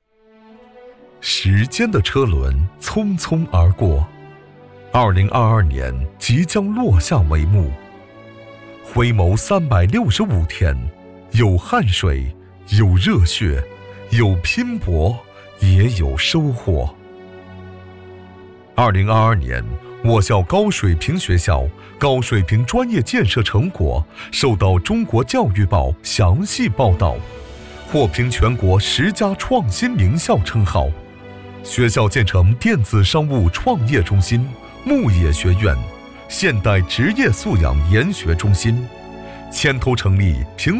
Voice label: 大气专题学校

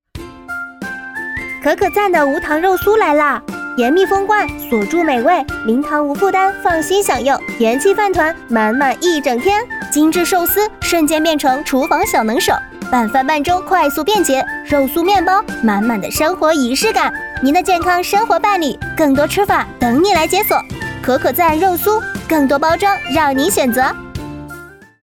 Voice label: 零食广告俏皮

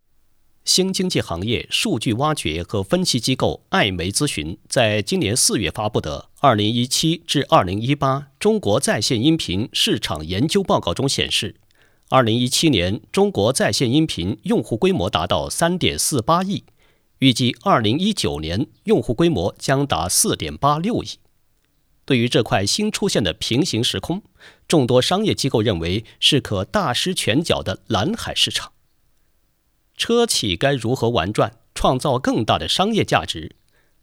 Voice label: 新闻 播报

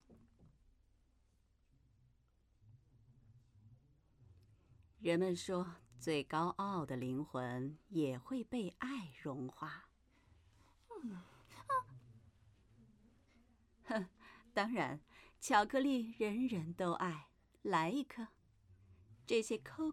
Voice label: 小女孩; 鬼妈妈

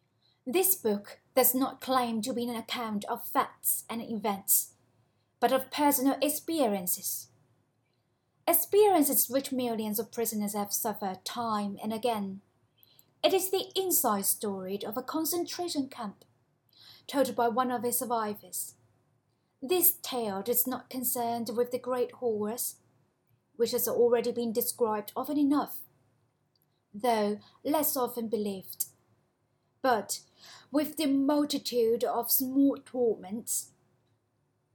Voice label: 英式英语－有声读物

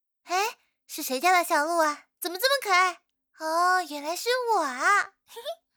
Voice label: 少女音

